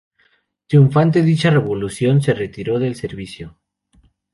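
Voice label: "servicio", Spanish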